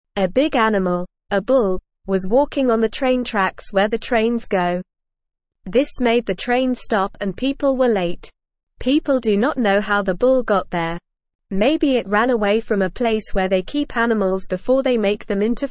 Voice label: English Newsroom Beginner NORMAL Reading

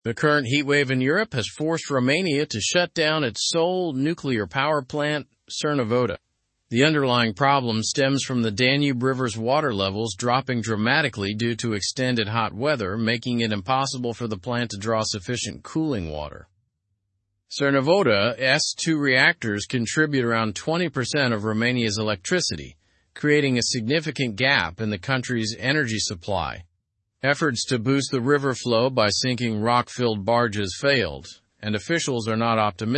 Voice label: english news advanced; romania s nuclear plant shut amid danube water crisis

